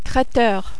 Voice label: "traiteur", French

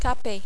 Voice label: "taper", French

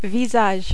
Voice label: "visage", French

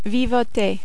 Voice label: "vivoter", French

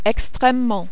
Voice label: "extremement", French

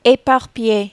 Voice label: "eparpiller", French

